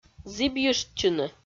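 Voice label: "зібйыштчыны", Komi, female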